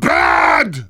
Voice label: Heavy negative vocalization

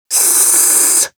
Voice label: jeers, ru; Spy; «Кссссссссс!»

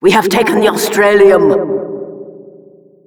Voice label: Announcer

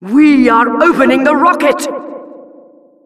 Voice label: rocket warnings, announcer

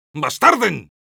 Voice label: medic negative vocalization, es

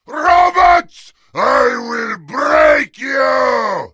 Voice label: Heavy rage

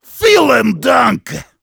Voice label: heavy thanks, de; "Vielen Dank!"

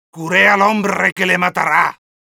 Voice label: medic taunts, es

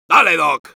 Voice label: soldier activate charge, es

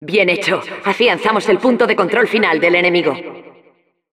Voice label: Announcer success secured final control; ¡Bien hecho! Afianzamos el punto de control final del enemigo.; Narradora

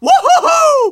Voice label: Scout cheers

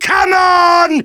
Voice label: Demoman battle cry

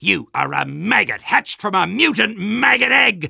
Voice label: Soldier taunts

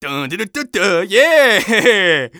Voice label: taunt conga fun; Scout

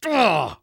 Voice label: Engineer negative vocalization; "Argh!"

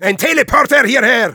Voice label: need teleporter, de; "Ein Teleporter hierher."; Spy

